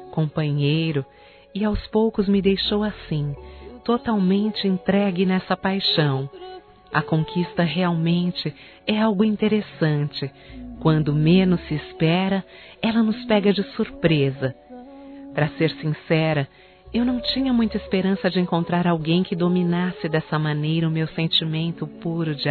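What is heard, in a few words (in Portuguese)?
Você me conquistou, feminino; Telemensagens; Românticas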